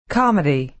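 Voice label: "comedy", American English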